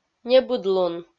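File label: "небыдлун", Komi, female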